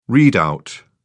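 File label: "readout", British English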